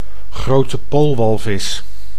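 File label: "grote poolwalvis", Dutch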